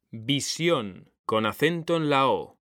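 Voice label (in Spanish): "visión", Spanish; mekanta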